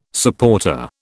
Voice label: "supporter", British

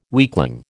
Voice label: "weakling", American

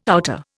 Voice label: "outer", Indian

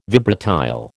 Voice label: "vibratile", American

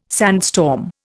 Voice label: "sandstorm", Indian

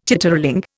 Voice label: "chitterling", Indian

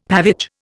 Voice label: "pavage", Indian; Dictionary

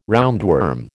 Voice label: "roundworm", American

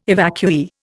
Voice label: "evacuee", Indian

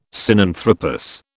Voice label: "Sinanthropus", British

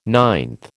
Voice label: "ninth", American

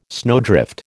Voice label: "snowdrift", American

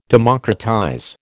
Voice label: "democratize", American

